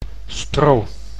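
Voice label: "stro", Dutch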